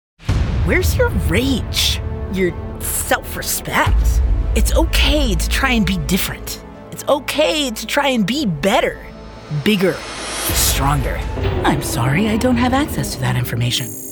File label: english american video games